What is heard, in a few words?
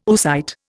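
"oocyte", Indian English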